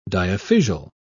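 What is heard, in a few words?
"diaphysial", British English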